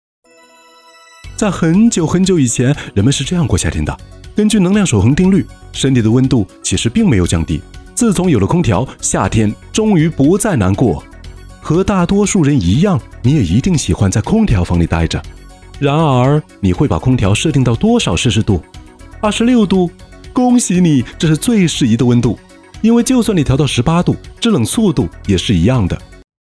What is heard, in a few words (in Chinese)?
男 飞碟MG 轻快阳光; 家安空调消毒剂